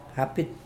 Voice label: "apid", Mokilese